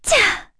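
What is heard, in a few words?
Vox Landing, Korean; heroes; Erze; kingsraid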